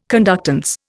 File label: "conductance", Indian